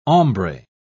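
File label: "hombre", British English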